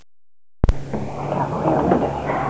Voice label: Ghost Voice